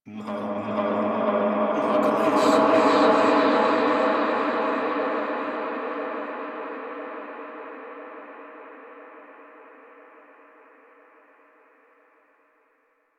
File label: Dialog Effect; Warframe; The New War; Finale